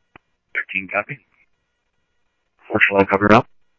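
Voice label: Lakes Sheriff Lake Patrol, scanner audio trunk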